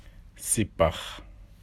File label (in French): "Crevette" Wolof